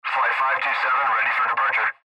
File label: Pilot Voice Departure